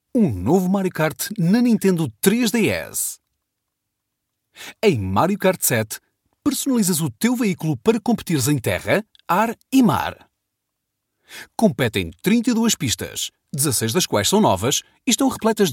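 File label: Portuguese, male; character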